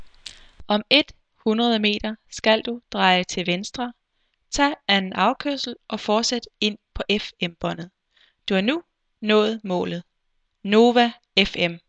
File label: tomtom voice